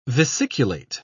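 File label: "vesiculate", British English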